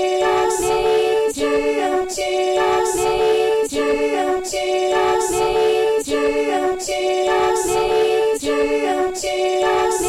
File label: PSA PLEDGE DRIVE phone number and jingle